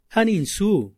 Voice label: "hanin´su", Otomí; Días de la semana; INPI; INALI